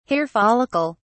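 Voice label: "hair follicle", British English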